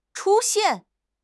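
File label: "chu1 xian4", Mandarin Chinese; HSK4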